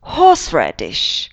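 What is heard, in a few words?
"horseradish", English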